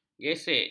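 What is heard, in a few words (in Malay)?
"gesek", Malay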